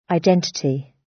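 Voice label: "identity", British; Flora and fauna, agriculture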